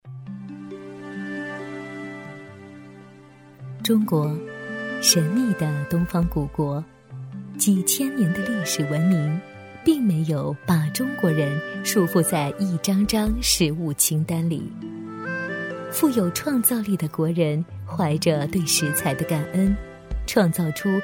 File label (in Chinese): 女7-美食专题 -舌尖感觉; 女7-大气温暖; 纪实记录